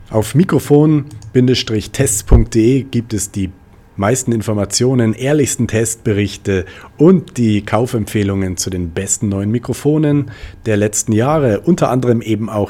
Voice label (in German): mikrofon test yeti blue voller gain